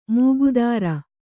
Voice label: "ಮೂಗುದಾರ", Kannada

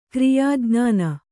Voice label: "ಕ್ರಿಯಾಜ್ಞಾನ", kannada

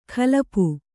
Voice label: "ಕಲಪು", Kannada

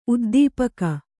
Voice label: "ಉದ್ದೀಪಕ", Kannada

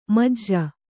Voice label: "ಮುಜ್ಜು", Kannada

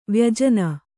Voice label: "ವ್ಯಜನ", Kannada

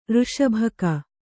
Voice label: "ಋಷಭಕ", Kannada; ṛṣabhaka; noun

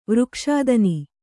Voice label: "ವೃಕ್ಷಾದನಿ", Kannada

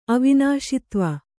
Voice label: "ಅವಿನಾಶಿತ್ವ", Kannada

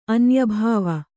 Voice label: "ಅನ್ಯಭವ", Kannada

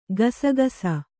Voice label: "ಕಸಕಸೆ", Kannada

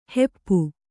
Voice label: "ಹಪ್ಪು" Kannada

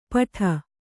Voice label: "ಪಠ", Kannada

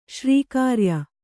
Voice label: "ಶ್ರೀಕಾರ್ಯ", Kannada

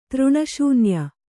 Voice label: "ತೃಣಶೂನ್ಯ", Kannada

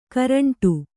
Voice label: "ಗೋರಂಟ", Kannada

